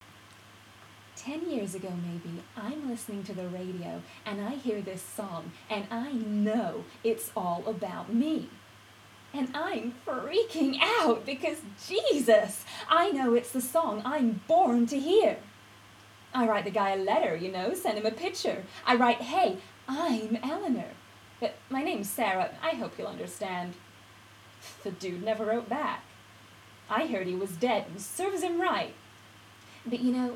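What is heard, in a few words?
American, female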